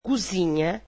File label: "kitchen", Portuguese